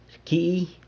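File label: "rcyii", San Lucas Quiaviní Zapotec; he cooks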